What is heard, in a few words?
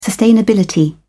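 "sustainability", British English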